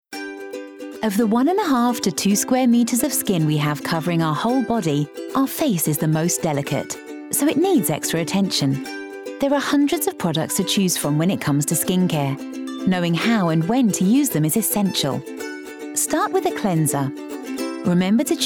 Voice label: Corporate; Aveeno